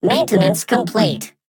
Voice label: Maintenance complete, Sci-Fi Voices Advanced Android; CB Sounddesign; Sci-Fi Voices Volume 03